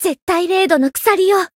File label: attack jp; saki